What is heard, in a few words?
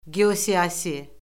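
"geosiyasi", Azerbaijani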